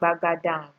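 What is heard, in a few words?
"gbagadam", Nigerian Pidgin, female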